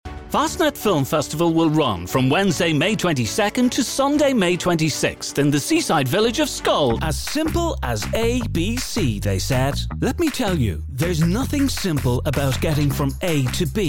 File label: English Irish, M, accent of ireland; Voicereel